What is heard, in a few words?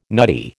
"nutty", American